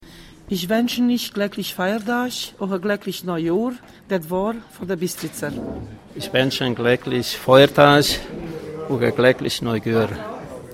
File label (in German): Weihnachtsgruesse auf Siebenbuergisch-saechsisch